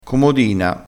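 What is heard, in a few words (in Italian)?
"comodina", dialettale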